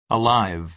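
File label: "alive", American English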